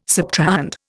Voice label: "subtrahend", Indian

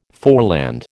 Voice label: "foreland", American

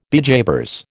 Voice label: "bejabers", American

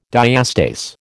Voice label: "diastase", American

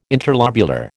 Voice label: "interlobular", American

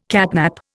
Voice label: "catnap", Indian

